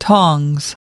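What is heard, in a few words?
"tongs", US English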